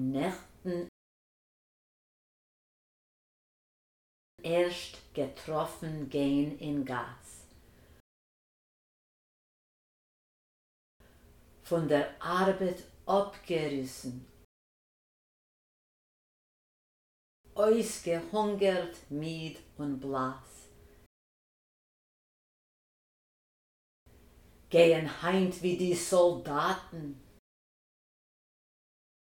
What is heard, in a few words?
Der General Shtrayk pronunciation drill; Listen and repeat